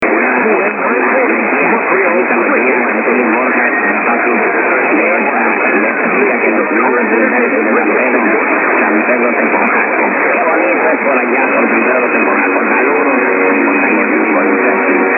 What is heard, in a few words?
unid ss suspect mexican